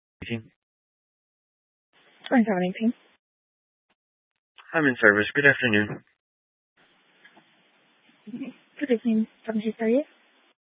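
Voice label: Sheriff Dispatch, scanner audio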